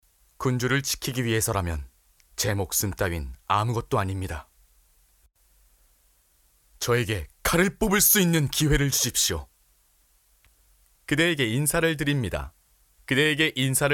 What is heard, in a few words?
foreigner